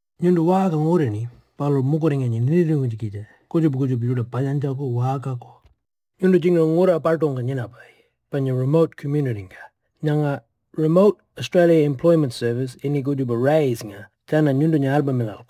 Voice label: Audio message Pitjantjatjara; RAES